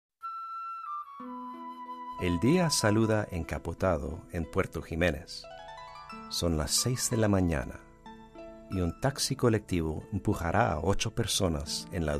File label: spanish